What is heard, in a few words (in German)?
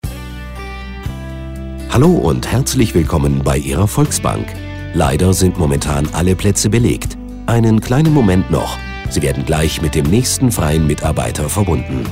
Ansage